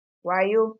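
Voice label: "wayo", Nigerian Pidgin, female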